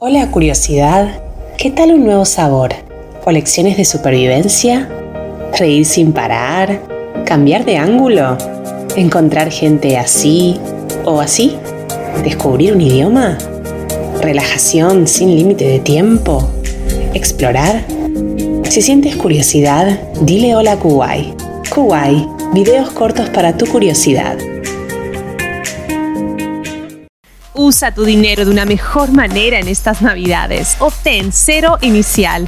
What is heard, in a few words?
Spanish, commercial